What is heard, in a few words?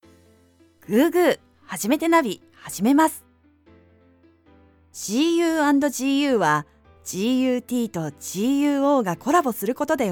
Business Eloquent inquisitive upbeat